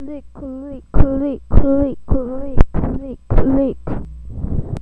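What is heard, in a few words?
"lick", spoken word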